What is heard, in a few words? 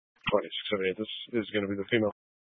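Sheriff Dispatch, Scanner audio recording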